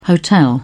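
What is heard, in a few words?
"hotel", British English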